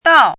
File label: "dao4", pinyin